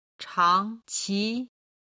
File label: "changqi", Chinese